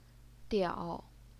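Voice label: "diao", third tone, Chinese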